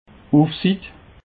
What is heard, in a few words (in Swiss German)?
"ufsits", Alsace